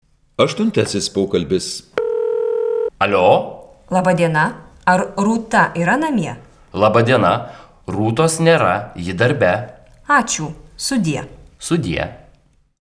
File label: Dialog